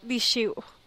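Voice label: "dixuuh", Teotitlán del Valle Zapotec; lie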